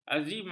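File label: "azimat", Malay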